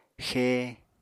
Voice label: "xée", Apatani

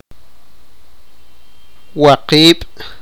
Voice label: sipakapense; six

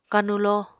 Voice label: "kanulo", Apatani

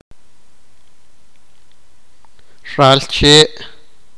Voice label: sipakapense; bosque

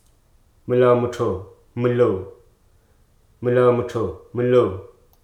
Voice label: "inaccurate", Hruso

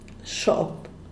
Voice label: "seis", "shop"

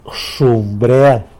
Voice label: "zhomrel", hat, tlacolula

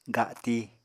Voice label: "gati", Apatani

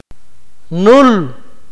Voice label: "spit", akateko

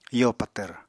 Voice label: "yo patvr", Apatani

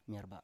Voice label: "myerba7", Biak

